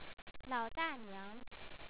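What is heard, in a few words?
"la3oda4nia2ng", Chinese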